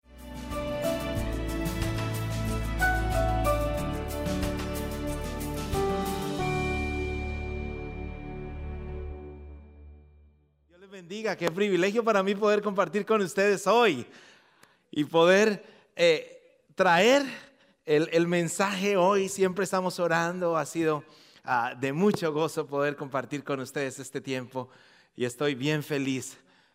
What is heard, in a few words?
Spanish Sermon